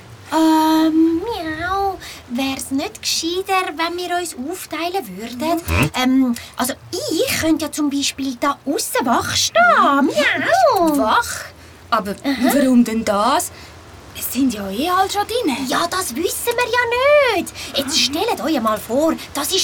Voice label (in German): Hoerprobe De magischi Adventskalender